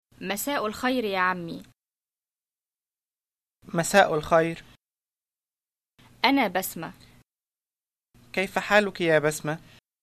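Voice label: Modern Standard Arabic telephone dialogue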